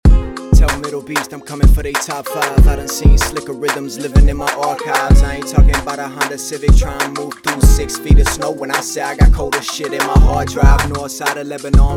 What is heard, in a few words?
no vocal chain, Rap Vocal; 100 ableton plugin vocal chain